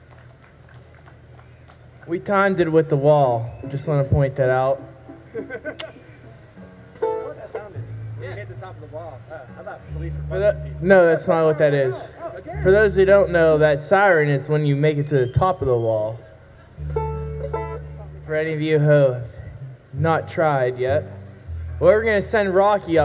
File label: Banter; Bluegrass; zoomh1